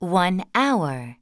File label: english 6 hour